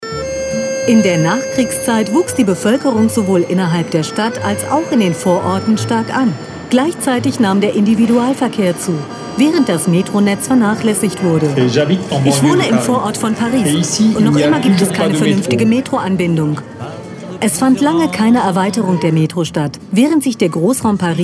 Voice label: Voice Over